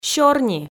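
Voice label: "сёрни", Komi, female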